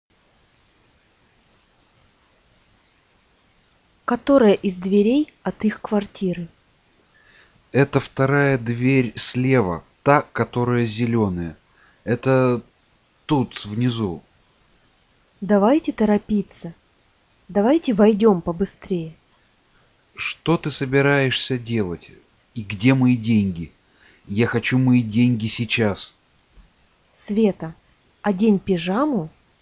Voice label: Russian simple story